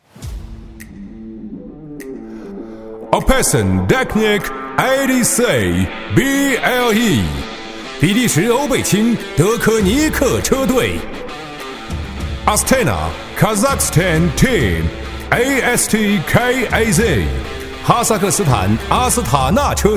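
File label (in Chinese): 中英·赛车联赛现场激情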